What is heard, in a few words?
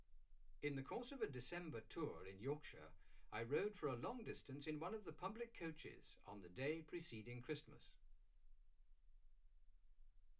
male speech english